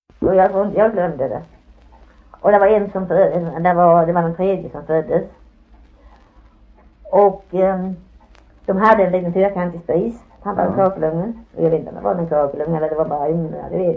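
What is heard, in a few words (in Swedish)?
Harplinge Halland, dialekter; Folkminnen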